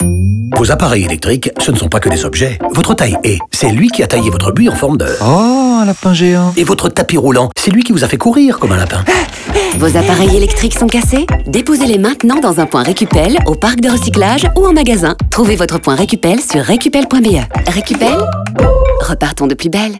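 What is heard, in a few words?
radio music intro, french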